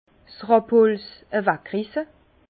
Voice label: "rabholz", Bas Rhin; vin; échalasser

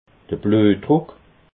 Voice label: "bluetdruck", alsace

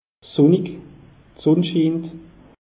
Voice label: "sunnig", alsace; meteo

